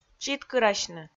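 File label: "читкырасьны", Komi, female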